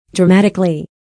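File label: "dramatically", English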